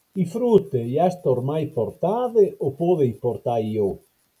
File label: Ampezzano (Cortina d’Ampezzo, Province of Belluno); I frute i asto ormai portade o pode i portà iò?; AlpiLinK